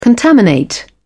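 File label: "contaminate", British English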